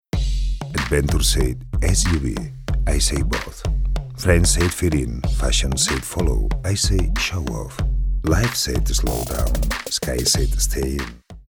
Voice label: male non native english car comercial